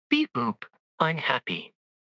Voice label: happy, speech; fertig classic games